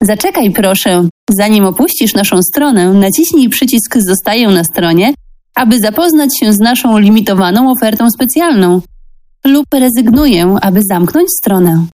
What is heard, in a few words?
IVR Polish Dry; J Factory